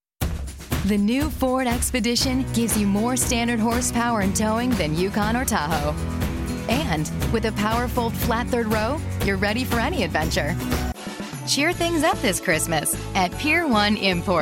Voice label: Commercial, female voices

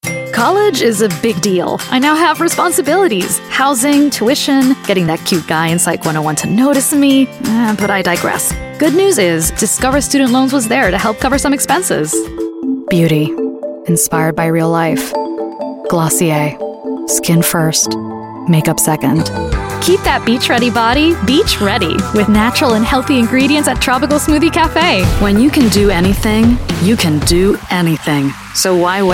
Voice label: Commercial, English, female voices

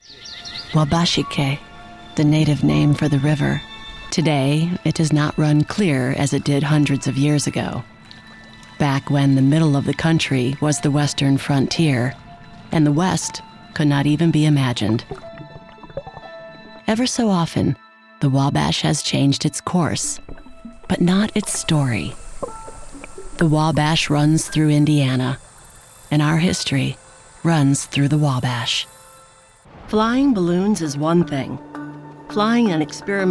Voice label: Documentary, female voices

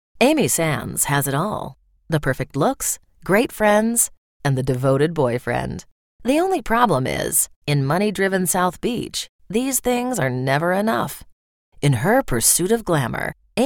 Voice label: Trailer, female voices

